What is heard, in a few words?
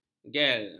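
"gel", Malay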